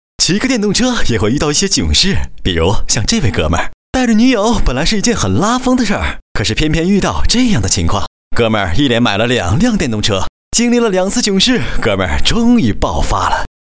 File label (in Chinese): 男, 国语男声配音, 浑厚; 浑厚专题; 飞碟说; 囧事